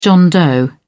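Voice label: "john doe", British English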